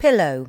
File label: "pillow", L2 English